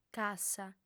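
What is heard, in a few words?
"cassa", female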